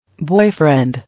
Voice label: "Boyfriend", English